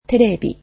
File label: "television", Japanese